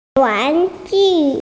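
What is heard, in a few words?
"toy", Mandarin, hearing-impaired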